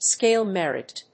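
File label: "scale merit", English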